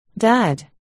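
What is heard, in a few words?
"dud", spoken word, articulation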